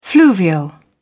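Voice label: "fluvial", British English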